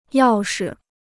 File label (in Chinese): "要是", Chinese